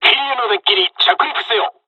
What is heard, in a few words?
landing, dialogs japanese; midway; War Thunder